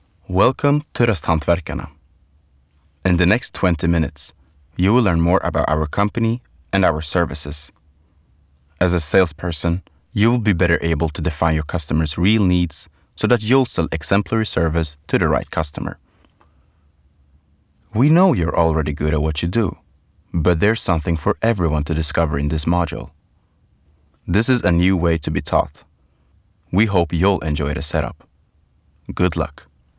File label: m elearning eng; svenska rostartister